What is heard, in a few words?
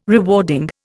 "rewarding", Indian